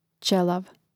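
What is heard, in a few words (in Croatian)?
"ćelav" Croatian; prid.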